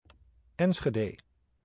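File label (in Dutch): "Enschede", Dutch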